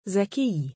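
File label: "zakii", Arabic, male; زَكِيّ（dhakī→Zakii、Zakiy、Zaki、Zaky、Zakee）; 清純な、純真な、芳しい香りの; given name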